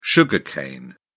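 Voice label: "sugar cane", British English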